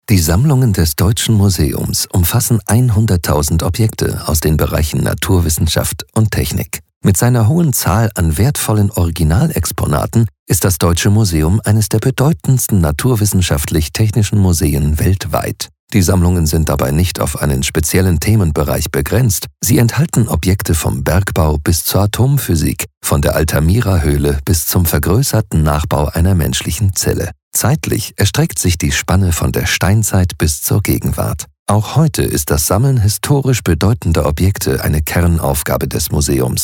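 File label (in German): Kommentar Neutral; Fremdtake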